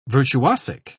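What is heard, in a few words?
"virtuosic", English, British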